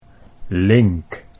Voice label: "link", Dutch

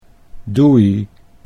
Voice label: doe ie, Dutch

